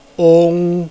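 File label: "ong", Mandarin Chinese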